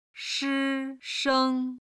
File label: "shi1sheng1", chinese